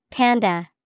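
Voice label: "Panda", English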